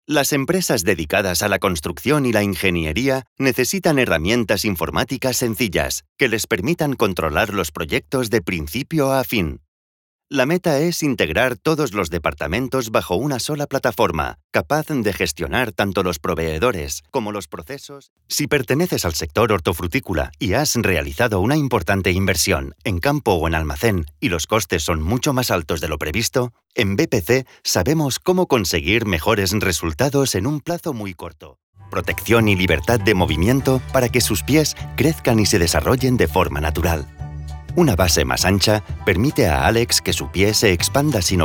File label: spanish explainer videos; Sou um talento profissional de locução em espanhol da Espanha e catalão.